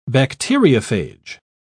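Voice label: "bacteriophage", British English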